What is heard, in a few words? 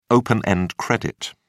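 "open-end credit", British English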